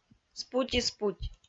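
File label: "спути-спуть", Komi, female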